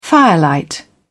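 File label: "firelight", British English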